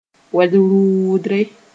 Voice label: "wed-rʋʋdre", moore

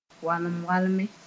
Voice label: "walem-walme", Mooré

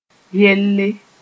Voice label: "yelle", moore

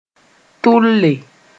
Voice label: "tʋlle", moore; desire

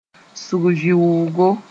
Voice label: "sʋg-vɩʋʋgo", Moore; interval

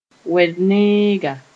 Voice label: "wed-neega", moore

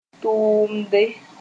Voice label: "tʋʋmde", moore; work

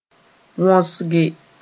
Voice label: "wõsge", Moore; yammer

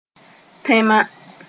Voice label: "tẽma", Moore

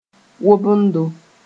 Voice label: "wobendo", moore

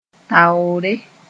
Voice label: "taoore", moore

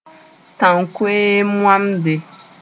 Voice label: "tãn-koeem-wamde", moore

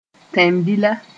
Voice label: "tẽn-bila", Moore

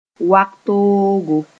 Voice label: "wag-toogo", Mooré; viper

